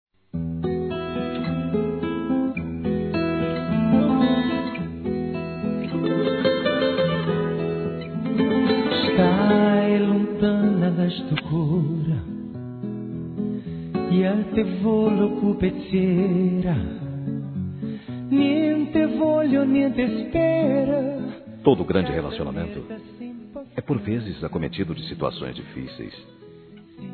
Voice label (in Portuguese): DESCULPAS-M; Telemensagens 2017